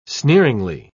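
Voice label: "sneeringly", British English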